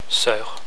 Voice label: "soeur", french